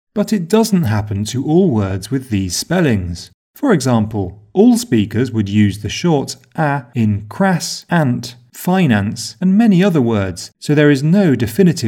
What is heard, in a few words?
TRAP BATH VARIATIONS